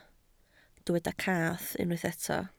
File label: "cath", Welsh